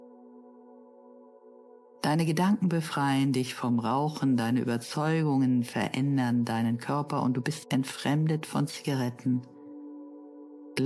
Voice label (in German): Meditation; Probestueck